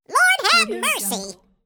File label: cartoon character lord have mercy